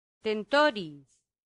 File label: "tentoriis", latin; santillana